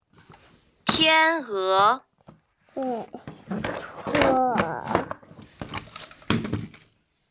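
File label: "tian1e2", Mandarin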